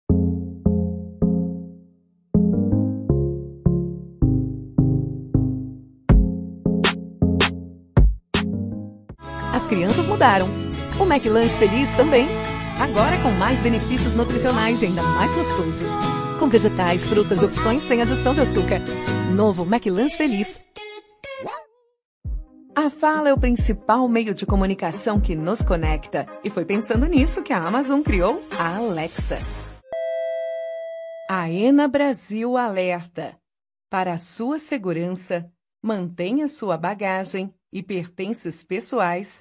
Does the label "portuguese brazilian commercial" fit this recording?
Yes